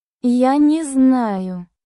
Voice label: Russian, Russian phrases for everyday conversation